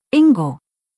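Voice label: "Ingo", German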